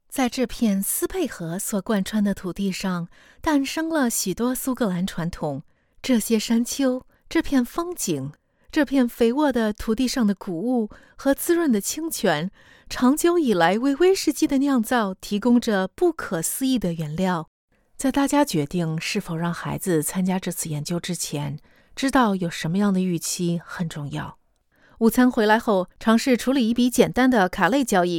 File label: Mandarin